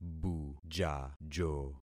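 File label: "bujajo", concatenated syllables; Affixing experiment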